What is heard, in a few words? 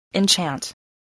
"enchant", spelling